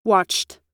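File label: "watched", US English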